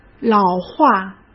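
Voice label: "老化", Mandarin Chinese; chinese flashcards; hsk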